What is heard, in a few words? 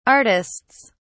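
"artists", English, British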